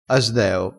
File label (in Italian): "a sdeo", Crespinese